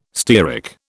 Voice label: "stearic", British